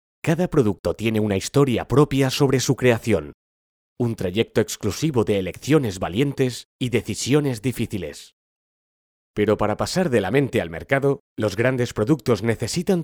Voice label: Spanish promos

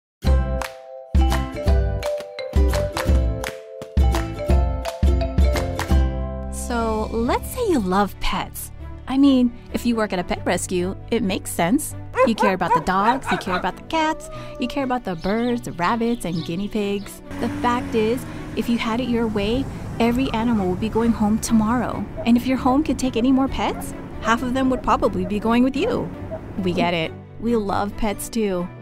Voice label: english american explainer videos; Filipina, Asian-American professional voice

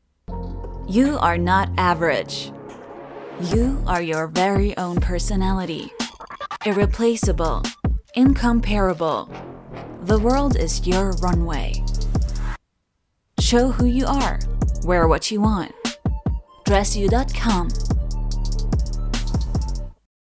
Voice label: english promos; german-voice-actors

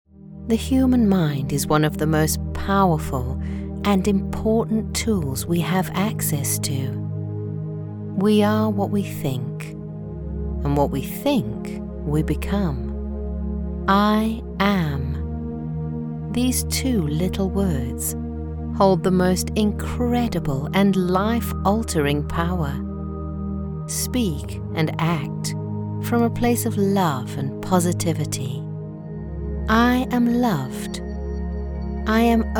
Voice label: english british

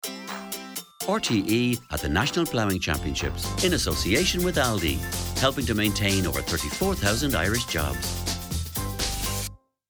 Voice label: english irish promos

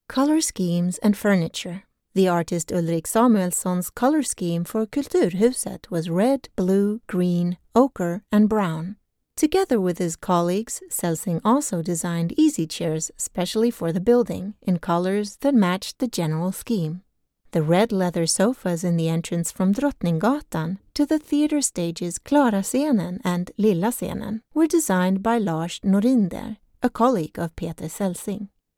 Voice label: english audio guides

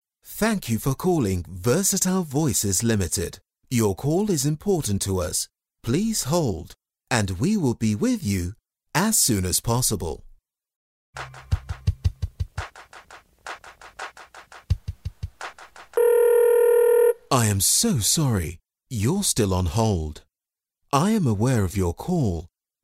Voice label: english british ivr

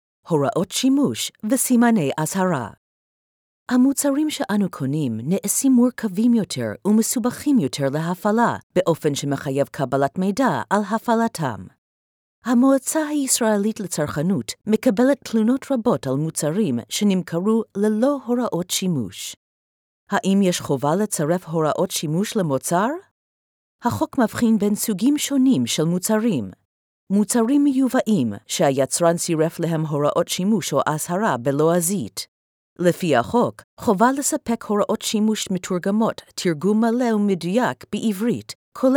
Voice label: hebrew commercial

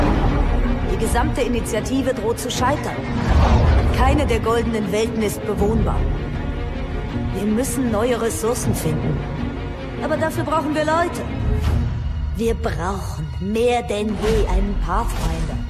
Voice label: german video games

